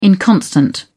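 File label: "inconstant", British English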